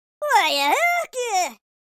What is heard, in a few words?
angry, Geordie; speech